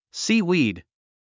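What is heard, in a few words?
"seaweed", English word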